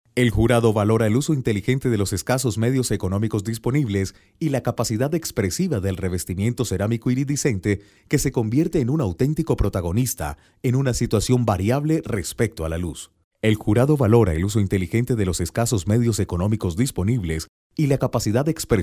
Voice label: locutor colombiano colombian voiceover